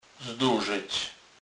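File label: "zdorzec", Polish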